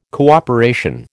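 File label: "cooperation", American English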